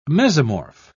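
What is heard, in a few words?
"mesomorph", British English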